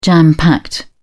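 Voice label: "jam-packed", British English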